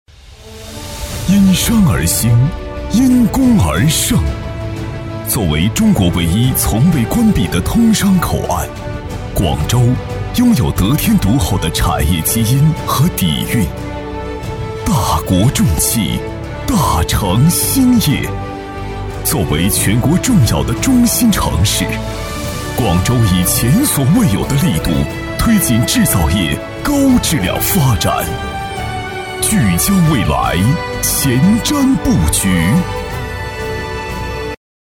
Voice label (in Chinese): 男 宣传片 自信大气; 男171-厚重有力 大气浑厚; 广州